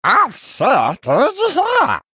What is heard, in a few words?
male murloc greetings